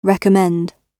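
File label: "recommend", British English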